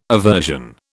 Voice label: "aversion", British